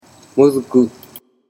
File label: "mozuku", Japanese